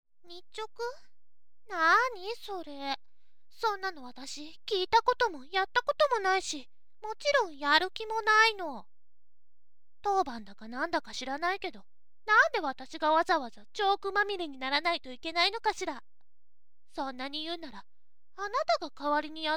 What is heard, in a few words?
voice onnna